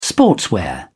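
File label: "sportswear", British English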